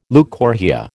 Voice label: "leucorrhoea", American

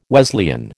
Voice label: "Wesleyan", American

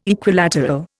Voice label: "Equilateral", Indian

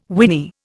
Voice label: "whinny", Indian

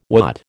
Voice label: "watt", American

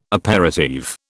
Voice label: "aperitif", British

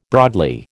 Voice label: "broadly", American

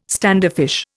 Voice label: "standoffish", Indian

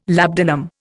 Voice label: "labdanum", Indian

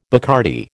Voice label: "Bacardi", American

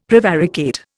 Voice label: "prevaricate", Indian; Dictionary

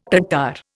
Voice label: "Tatar", Indian

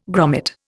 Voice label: "grommet", Indian

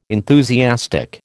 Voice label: "enthusiastic", American

